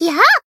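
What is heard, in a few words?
attack, Japanese; leviachan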